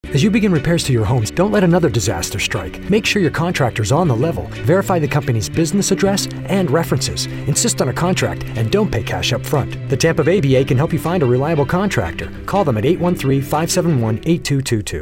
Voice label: PSA; Rebuild; Tampa Bay